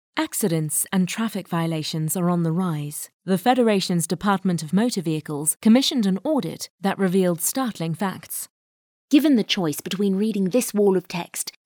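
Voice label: English British, female, voice over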